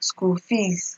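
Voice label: "school fees", Nigerian Pidgin, female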